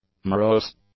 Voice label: "morose", English; adjectives

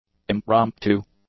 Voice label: "impromptu", English; adjectives

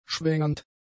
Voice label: "schwängernd", German; adjectives

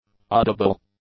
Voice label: "audible", English; adjectives

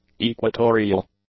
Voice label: "equatorial", English; adjectives

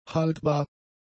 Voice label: "haltbar", German; adjectives

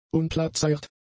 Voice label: "unplatzeirt", German; adjectives

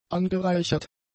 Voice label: "angereichert", German; adjectives

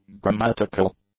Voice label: "grammatical", english

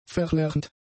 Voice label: "verlernt", German; adjectives

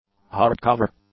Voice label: "hardcover", English; adjectives

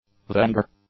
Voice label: "vendor", English; nouns

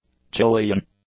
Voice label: "Chilean", english; adjectives

